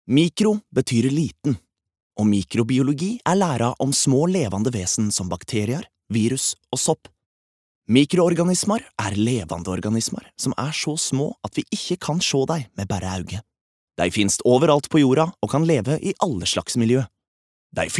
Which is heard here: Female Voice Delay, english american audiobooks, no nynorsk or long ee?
no nynorsk